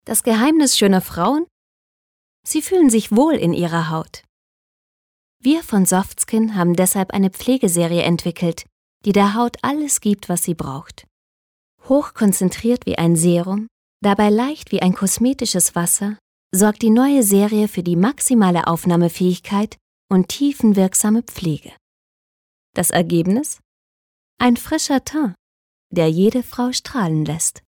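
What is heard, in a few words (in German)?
Werbung Soft Skin Sanft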